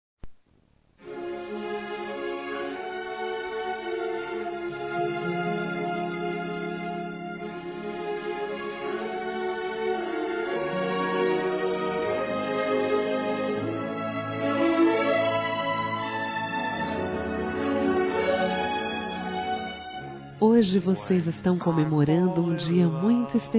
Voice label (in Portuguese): ANIV. DE CASAMENTO F; Aniversários; Aniversários diversos; fem